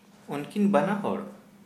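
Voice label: their two, Santali, male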